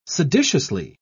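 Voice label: "seditiously", British English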